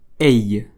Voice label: [ɛj], French